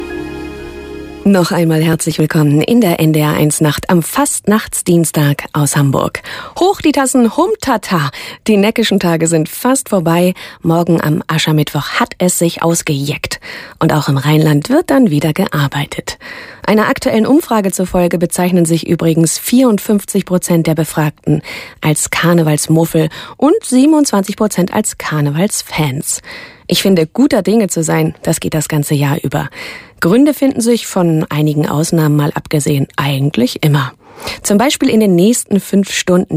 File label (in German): Moderation